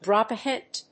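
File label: "drop a hint", English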